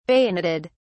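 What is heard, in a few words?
"bayoneted", English, British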